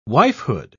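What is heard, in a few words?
"wifehood", British English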